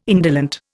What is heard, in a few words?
"indolent", Indian